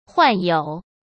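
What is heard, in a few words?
"患有", Mandarin Chinese; hsk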